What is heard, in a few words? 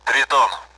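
Speech Sound Russian Common AWACS Callsign; Triton; DCS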